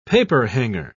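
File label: "paperhanger", British English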